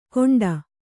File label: "ಗೊಂಡ", Kannada